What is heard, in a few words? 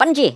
"bungee", Irish; Worms Armageddon